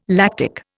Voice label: "lactic", Indian